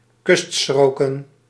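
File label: "kuststroken", Dutch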